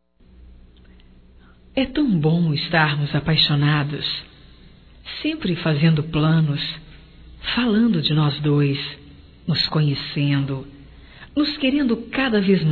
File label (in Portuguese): ROMANTICA GERAL, Românticas fem